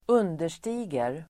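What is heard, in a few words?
"understiger", Swedish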